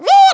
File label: "fire", Dutch; Speech; Worms Armageddon